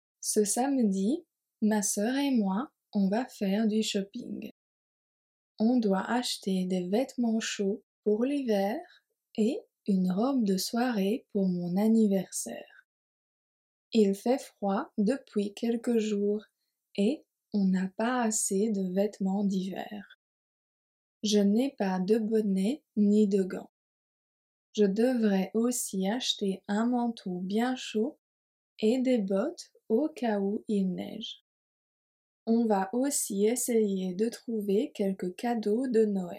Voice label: French Speaking Practice; Faire du shopping